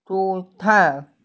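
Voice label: "shucai", Mandarin Chinese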